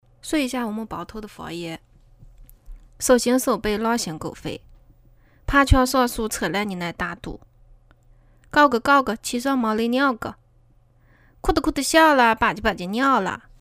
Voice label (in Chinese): 包头方言